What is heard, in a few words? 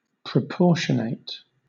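"proportionate", British English; pronunciations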